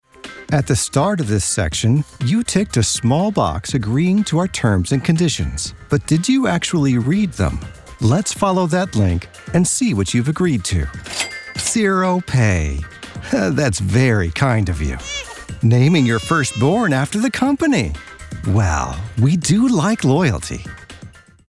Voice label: voiceover elearning fun

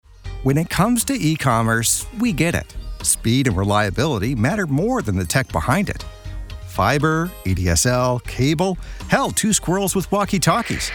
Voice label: voiceover corporate fun

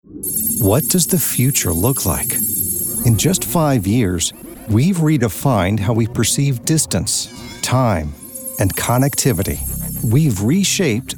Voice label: voiceover corporate visionary

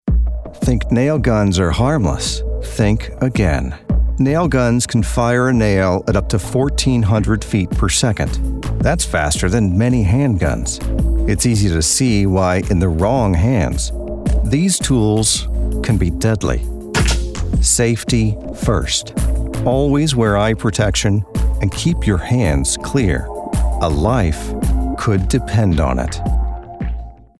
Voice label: voiceover elearning serious

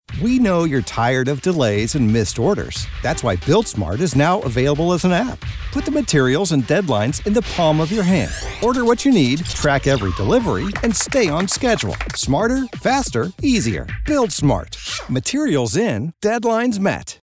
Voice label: voiceover corporate excited

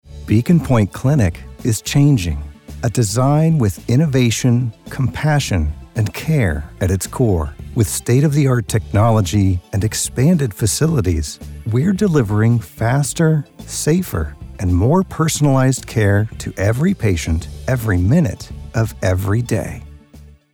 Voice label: voiceover corporate caring

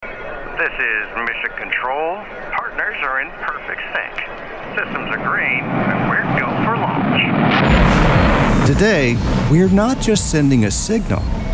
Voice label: voiceover corporate innovative

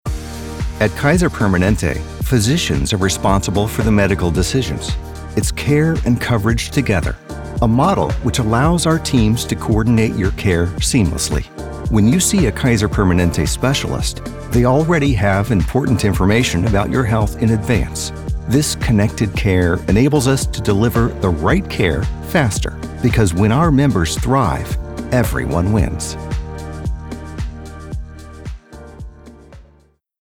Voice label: voiceover explainer professional

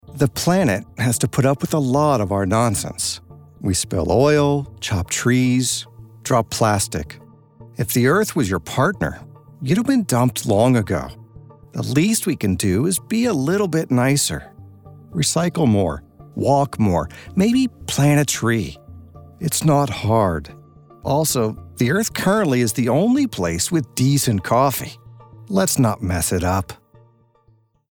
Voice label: voiceover corporate conversational

